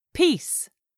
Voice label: "PIECE", English; 3000 words